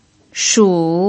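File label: "shu", Mandarin Chinese pinyin, third tone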